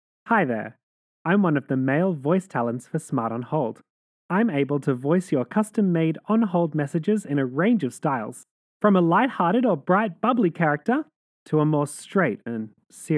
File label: male